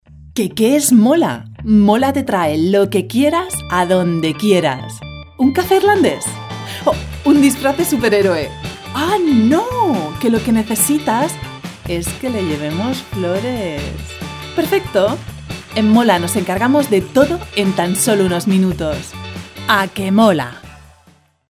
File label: Spanish Castilian DYNAMIQUE ENTHUSIASTIC PERSUASIVE; european spanish